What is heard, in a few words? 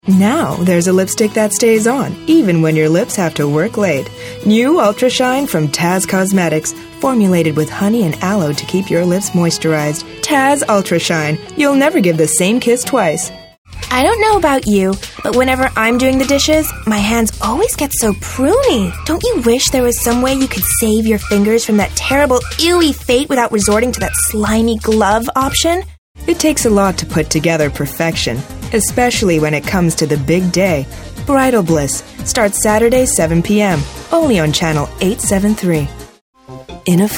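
American, TV commercial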